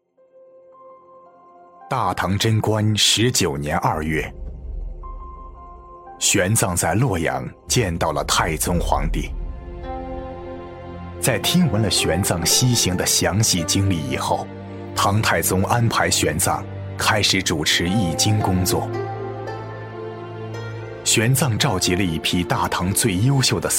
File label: chinese cantonese commercial